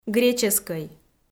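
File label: "греческӧй", Komi, female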